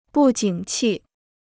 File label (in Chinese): "不景气", Chinese